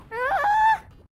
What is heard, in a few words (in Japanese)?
ダメージボイス; ドンキーコング６４